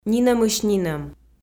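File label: "нинӧмысь-нинӧм", Komi, female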